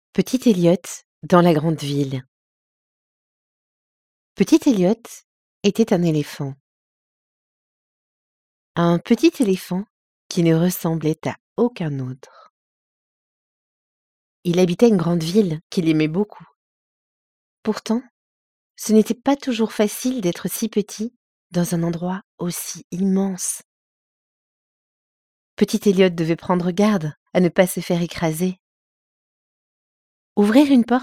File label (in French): Audiobook enfance; Mike Curato; Petit Elliot dans la grande ville